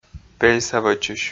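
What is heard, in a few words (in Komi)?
"пельса вӧчысь", Komi, male; audio dict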